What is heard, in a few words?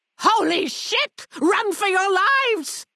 female old greeting; Fnv